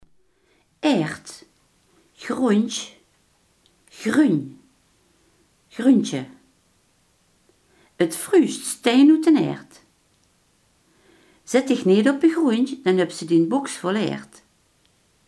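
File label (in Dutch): grond, Limburgse gesproken woordenboeken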